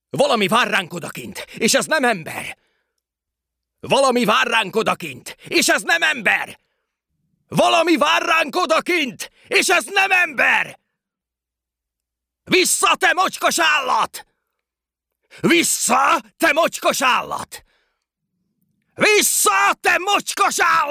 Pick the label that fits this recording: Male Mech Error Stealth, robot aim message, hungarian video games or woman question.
hungarian video games